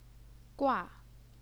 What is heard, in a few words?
"gua4", chinese pinyin